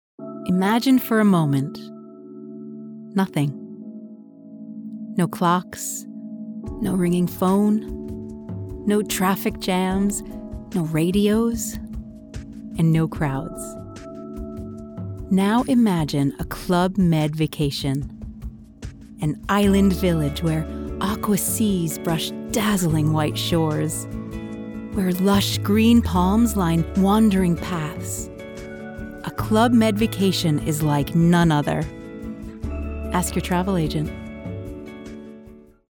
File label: english us and canada female commercial